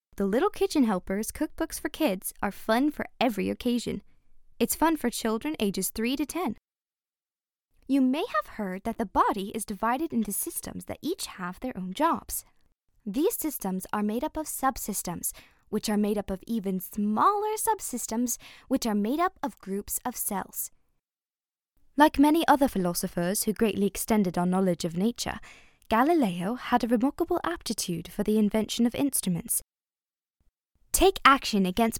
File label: Commercial VO